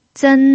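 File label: "zen1", Mandarin Chinese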